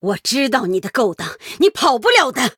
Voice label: Female Human Start; 对决模式; 渗透者莉莉安; 对战：校长克尔苏加德; Start:Headmaster Kel'Thuzad